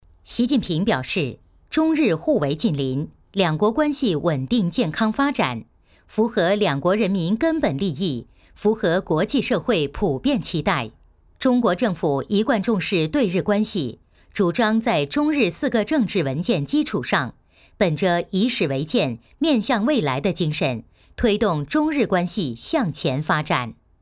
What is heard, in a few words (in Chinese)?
女 新闻 坚定 稳重; 中日关系